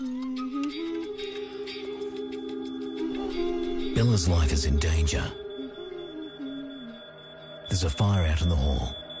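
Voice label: smoke alarms, voiceover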